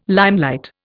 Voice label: "limelight", Indian

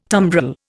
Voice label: "tumbrel", Indian

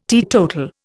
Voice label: "teetotal", Indian

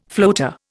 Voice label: "floater", Indian

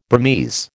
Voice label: "Burmese", American

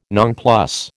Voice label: "nonplus", American

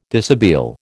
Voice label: "dishabille", American

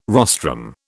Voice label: "rostrum", British

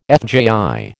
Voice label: "FJI", American

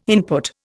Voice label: "input", Indian

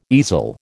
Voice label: "easel", American

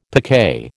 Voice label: "picquet", American

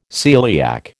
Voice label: "celiac", American

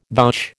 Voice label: "vouch", American

